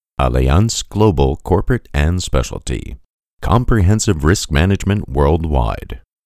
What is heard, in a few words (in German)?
Eng Us Kraftvoll; Fremdtake; Allianz